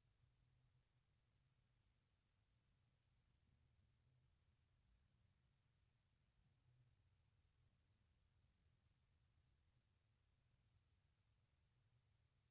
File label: french language directions part b; AP French Language 2013